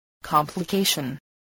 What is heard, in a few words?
"complication", english